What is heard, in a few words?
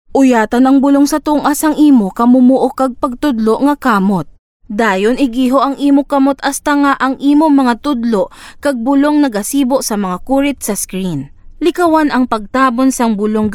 Ilonggo Voice Sample